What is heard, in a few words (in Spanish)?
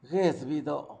"viruela", Zapoteco, Magdalena Ocotlan; Enfermedades; INALI; Haspelmath